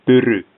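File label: "pyry", Finnish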